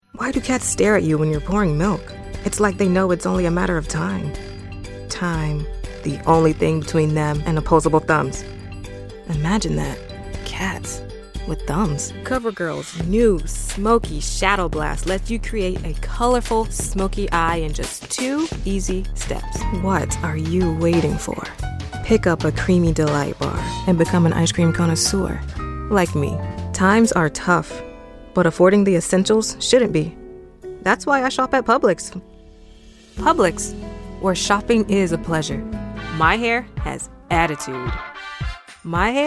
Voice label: Commercial Reel